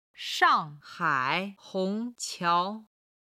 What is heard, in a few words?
"shang4hai3hong2qiao2", chinese